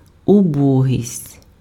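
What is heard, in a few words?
"убогість", Ukrainian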